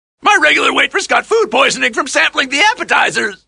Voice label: begging